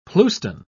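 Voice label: "pleuston", British English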